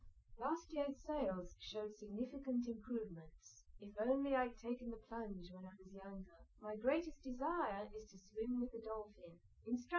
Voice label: female liverec; SiSEC08; SiSEC underdetermined